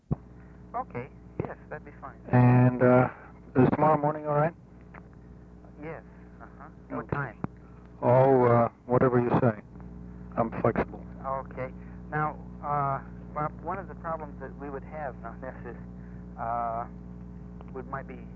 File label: conversation, reverse